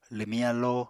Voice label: "lwmiñ alló", Apatani